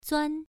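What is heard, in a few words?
"zuan1", Chinese pronunciation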